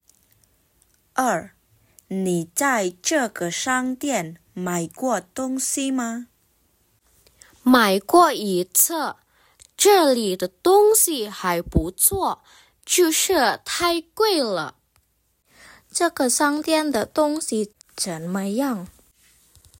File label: Mandarin; mandarin placement test hsk2